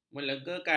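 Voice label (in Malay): "melegakan", Malay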